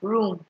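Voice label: "room", Nigerian Pidgin, female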